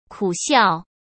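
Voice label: "苦笑", Mandarin Chinese; hsk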